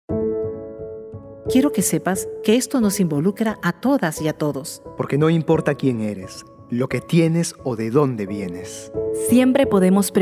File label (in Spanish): SPOT RADIO; MIMP SIEMPRE DE TU LADO; programa nacional aurora ministerio de la mujer